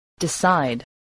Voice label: "decide", English

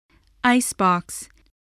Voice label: "icebox", voice spelling

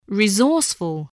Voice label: "resourceful", English

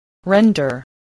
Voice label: "render", English

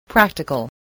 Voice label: "practical", voice, spelling

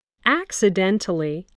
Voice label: "accidentally", English voice

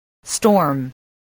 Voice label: "storm", spelling

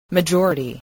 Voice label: "majority", spelling voice

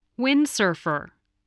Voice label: "windsurfer", voice, english

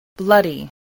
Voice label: "bloody", spelling

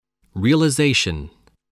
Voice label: "realization", english

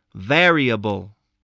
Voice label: "variable", English

